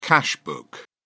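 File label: "cash book", British English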